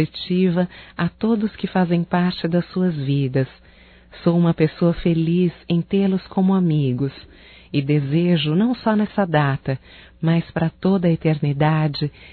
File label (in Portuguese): Aniversário Feminino, Telemensagens; Aniversário Casamento Feminino